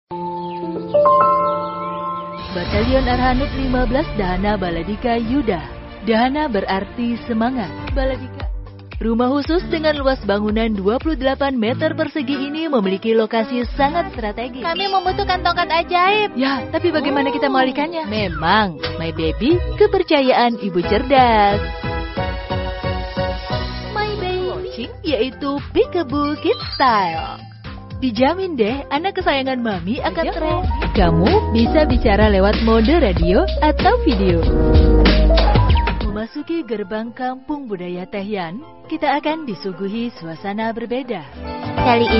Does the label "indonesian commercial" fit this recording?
Yes